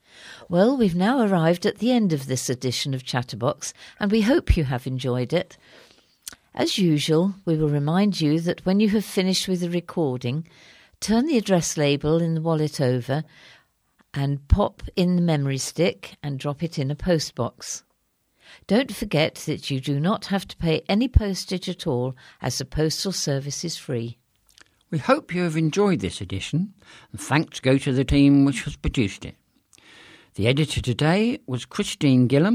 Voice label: Closing Announcement; chatterbox norwich evening news; may 12th 2022 chatterbox edition 2170